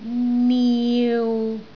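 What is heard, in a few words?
"niu6", Cantonese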